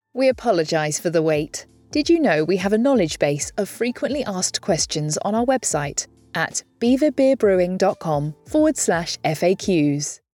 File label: voiceover ivr supportive friendly with music